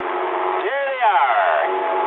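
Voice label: English enemy detected mood low; War Thunder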